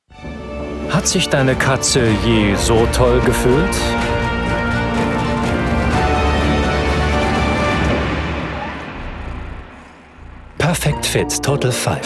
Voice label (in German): Werbung; PerfectFit